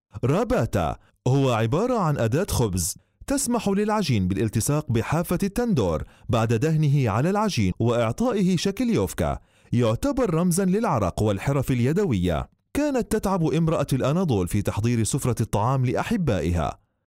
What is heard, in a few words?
arabic